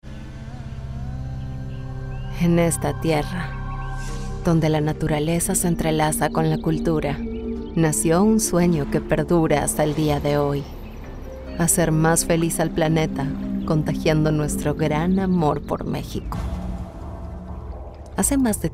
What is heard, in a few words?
Spanish Latin American documentaries